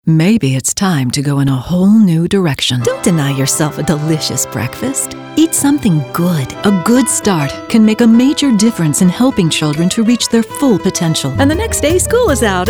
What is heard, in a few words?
Commercial